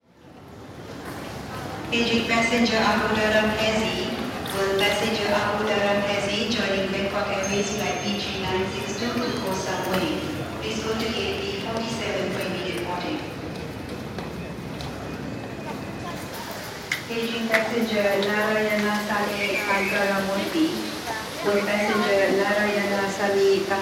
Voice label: Changi Airport Announcements